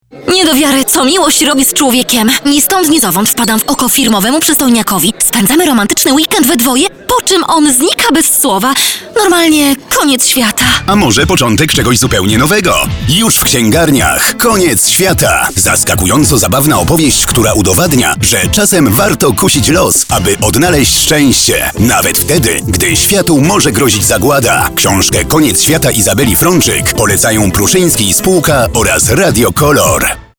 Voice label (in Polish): reklama